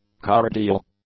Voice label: "cardial", English; adjectives